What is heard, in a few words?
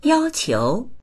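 "yao1qiu2", Mandarin Chinese; yāoqiú; 要求; 要求する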